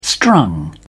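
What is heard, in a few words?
"strung", British English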